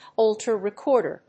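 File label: "Holter recorder", English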